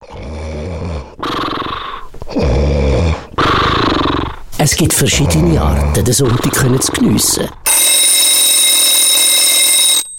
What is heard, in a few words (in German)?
coop durchsage hinwil